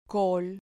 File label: "col", Spanish syllable